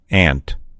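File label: "ant", American English; gcompris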